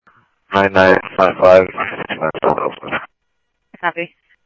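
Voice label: Simulcast police department, scanner audio; Mesa Simulcast; MESA PD Superstn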